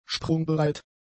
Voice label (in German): "sprungbereit", German; adjectives